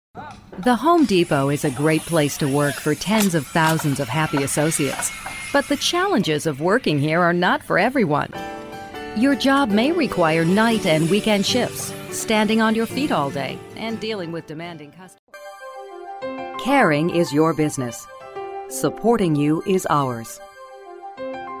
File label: narration